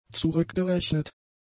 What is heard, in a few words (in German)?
"zurückgerechnet", German; adjectives